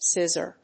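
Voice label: "scissor", English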